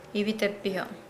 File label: Nukuoro; skull